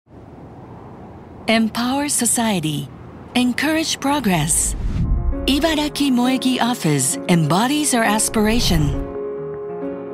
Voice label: 低め、重厚感、信頼できる; Mitsui Logistics

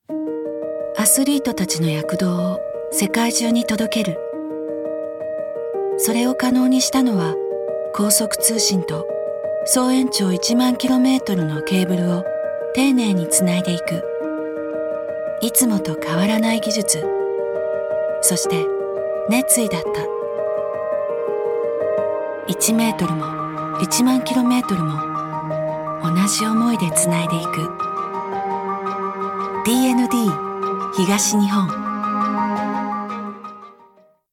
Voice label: ナチュラル、素朴; cm; DND東日本

